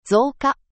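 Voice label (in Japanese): "zouka", Japanese; N4 level words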